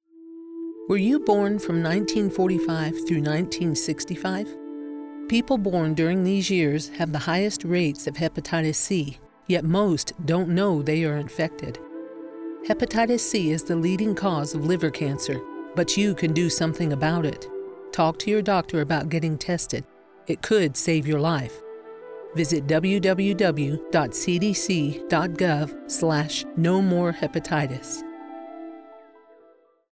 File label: Radio Ad Female